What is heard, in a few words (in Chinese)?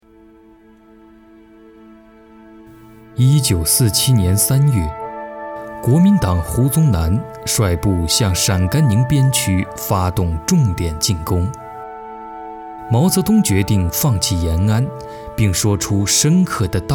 纪录片; 转战陕北